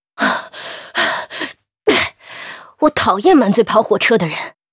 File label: runner pissed, zh